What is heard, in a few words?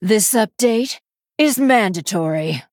Female Human